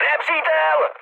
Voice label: enemy detected, speech cze, mood high